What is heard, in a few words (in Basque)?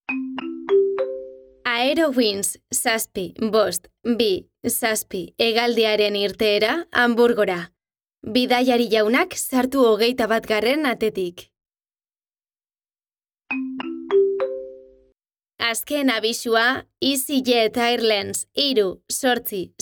ALTERNATIBO EUSK AEROPORTU